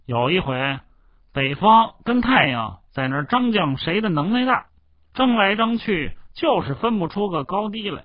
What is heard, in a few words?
Beijing melo